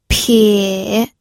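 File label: "pie", Mandarin Chinese, third tone; pinyin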